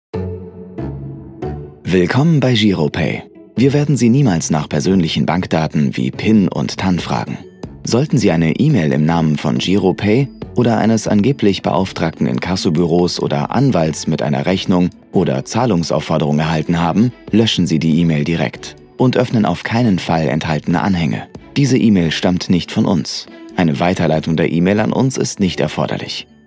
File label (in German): Telefonansage; GIROPAY